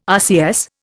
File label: "RCS", Indian